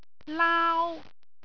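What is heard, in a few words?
"laau1", Cantonese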